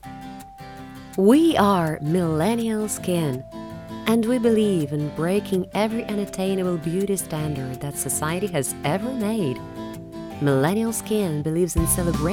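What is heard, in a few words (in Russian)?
английский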